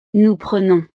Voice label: "nous prenons", frances

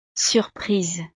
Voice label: "surprise", frances; estados animo

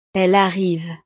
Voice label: "elles arrivent", frances

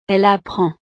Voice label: "elle apprend", frances

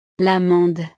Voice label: "l amande", frances; frutas

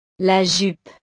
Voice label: "la jupe", frances; ropa

